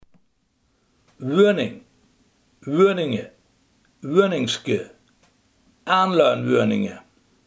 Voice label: "woning", limburgse

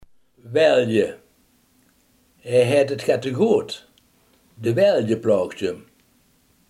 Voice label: "weelde", limburgse

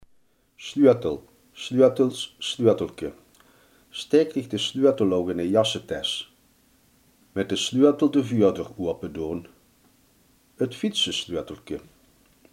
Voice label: "sleutel", Limburgs

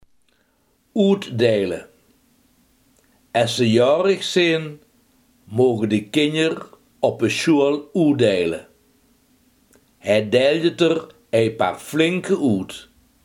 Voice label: "uitdelen", limburgse

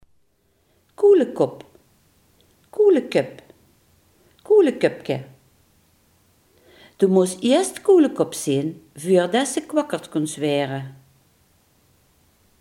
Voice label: "kikkervisje", Limburgs

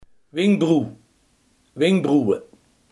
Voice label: "wenkbrauw", limburgse

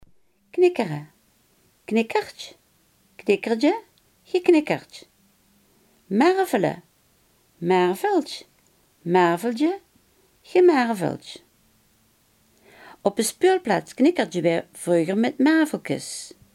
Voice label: "knikkeren", Limburgs

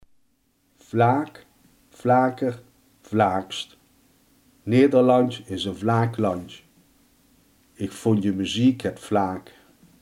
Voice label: "vlak", limburgse

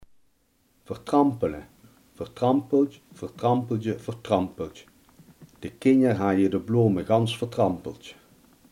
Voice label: "vertrappen", limburgse

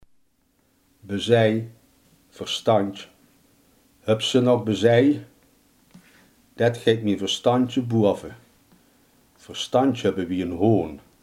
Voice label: "verstand", limburgse

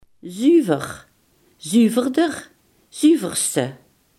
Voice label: "zuiver", limburgse

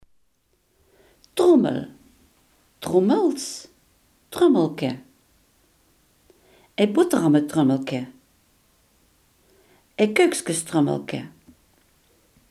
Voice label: "trommel", limburgse

